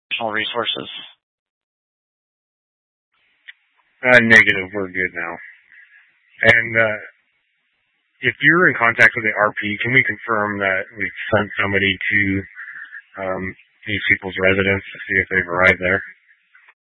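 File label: Incident Tactical 1, Scanner audio recording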